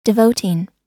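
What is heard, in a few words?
"devoting", US English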